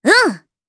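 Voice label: voice, Japanese; Cecilia; kingsraid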